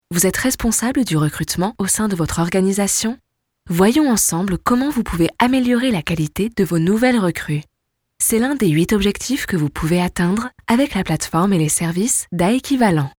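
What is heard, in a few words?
French, voice over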